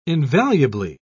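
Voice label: "invaluably", English, uk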